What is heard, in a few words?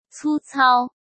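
"粗糙", Mandarin Chinese; chinese flashcards; hsk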